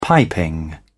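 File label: "piping", British English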